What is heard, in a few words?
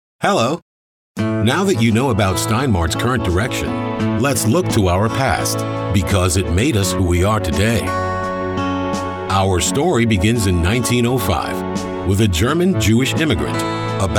Narrative